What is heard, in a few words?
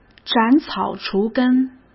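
"斩草除根", Mandarin Chinese; chinese flashcards; hsk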